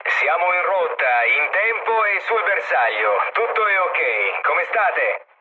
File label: chat, speech it; War Thunder